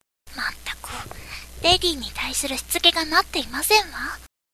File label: girl